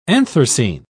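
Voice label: "anthracene", British English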